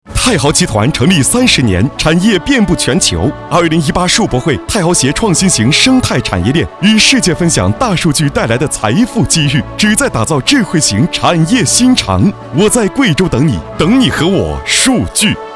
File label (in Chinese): 男 广告; 泰豪集团